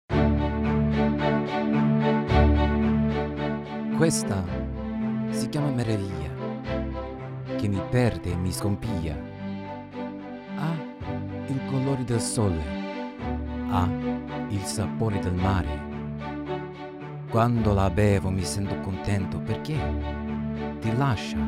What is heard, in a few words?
Italian Language